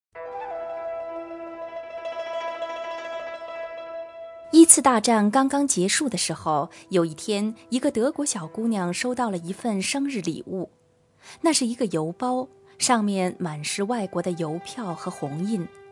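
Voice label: China, female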